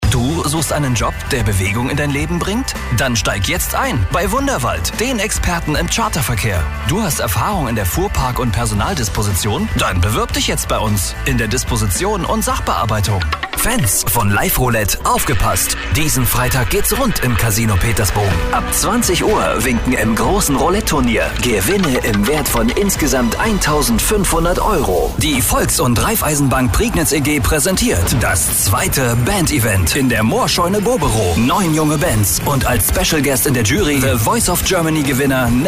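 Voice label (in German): Werbung Variabel; Fremdtake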